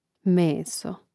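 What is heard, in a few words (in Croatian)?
"meso", Croatian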